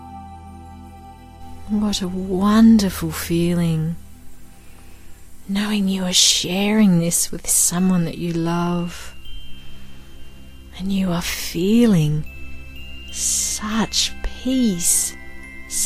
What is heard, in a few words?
Meditation for parent carer and child; Goodmorning